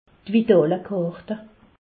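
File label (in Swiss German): "vitalkart", Alsace, Haut Rhin; medecine; carte vitale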